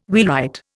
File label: "wheelwright", Indian